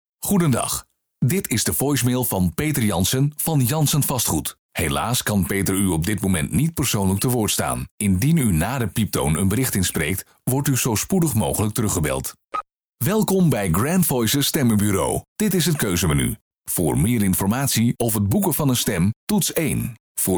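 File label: DUT M, Dutch male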